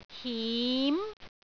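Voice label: "him2", Cantonese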